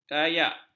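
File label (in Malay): "kayak", Malay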